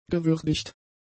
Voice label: "gewürdigt", German; adjectives